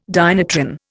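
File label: "dynatron", Indian English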